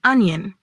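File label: "Onion", pronunciation; vegetables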